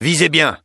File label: Fr Neutral